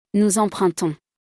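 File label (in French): "nous empruntons", French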